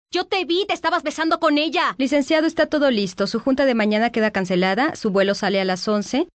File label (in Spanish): Spanish South America, F